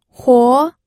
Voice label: "huo2", pinyin audio